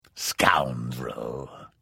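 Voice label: Thief, Vo; Morrowind GOTY GOG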